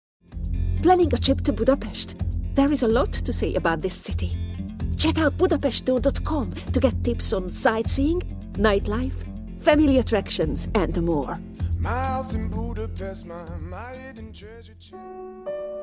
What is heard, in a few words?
female Hungarian English with Hungarian accent commercial compilation